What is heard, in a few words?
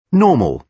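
"normal", British English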